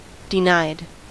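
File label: "denied", American English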